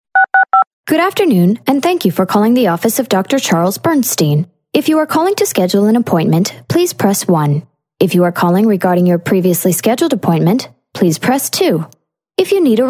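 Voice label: american, woman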